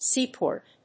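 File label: "seaport", English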